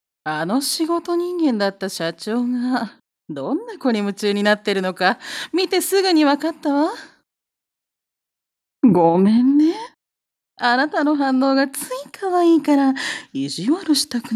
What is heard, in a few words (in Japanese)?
セクシーOLお姉さん